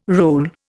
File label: "role", Indian